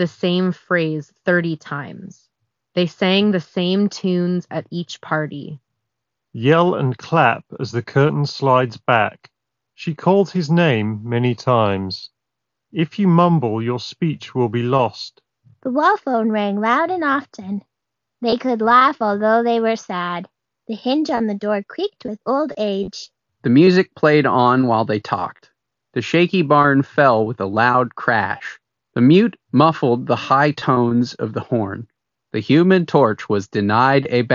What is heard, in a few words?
Sony WH-CH720N Ideal microphone sample